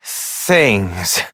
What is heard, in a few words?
Operator Voice; Warframe; Sacrifice Quest; Mimic